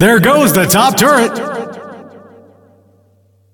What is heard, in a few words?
Announcer; Ringmaster